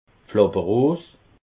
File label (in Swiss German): "pflapperros", alsace